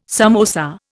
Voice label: "samosa", Indian